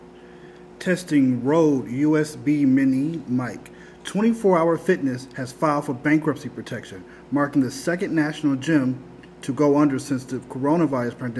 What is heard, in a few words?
Rode Mic